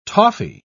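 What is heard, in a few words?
"toffy", British English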